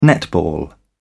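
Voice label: "netball", British English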